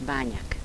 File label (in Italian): "banjak", Il dialetto croato di Lukezi